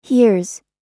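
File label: "hears", American English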